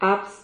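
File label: "abs", Spanish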